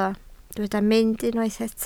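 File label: "mynd" Welsh, spoken word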